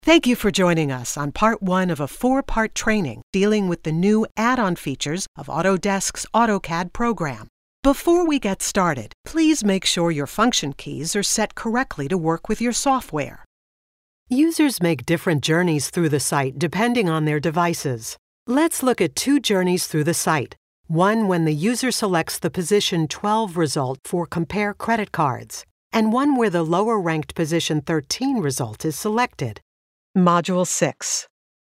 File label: E Learning